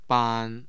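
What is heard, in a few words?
"ban", Mandarin Chinese, tone 1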